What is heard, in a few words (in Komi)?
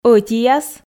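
"ӧтияс", Komi, female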